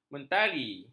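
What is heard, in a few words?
"mentari", Malay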